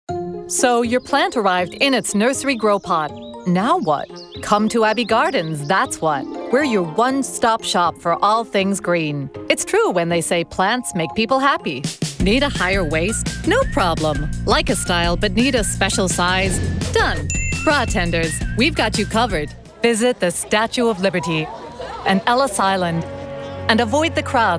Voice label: Commercial